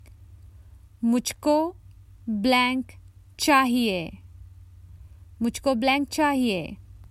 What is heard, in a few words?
Common Hindi Phrases for travel I would like